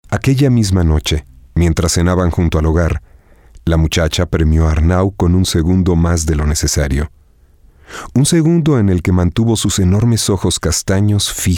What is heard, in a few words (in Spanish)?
spanish mexican audiobooks